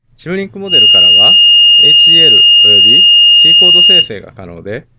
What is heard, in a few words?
voice howling